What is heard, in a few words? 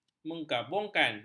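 "menggabungkan", Malay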